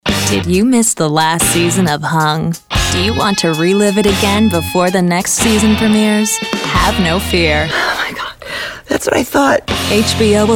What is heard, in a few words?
promo female